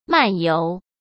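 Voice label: "漫游", Mandarin Chinese; hsk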